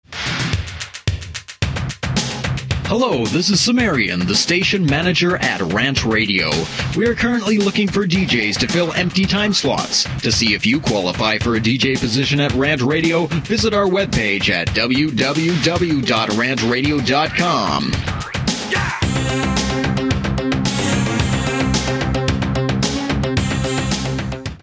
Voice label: Promo DJ Position; RantRadio; Station Promos-In House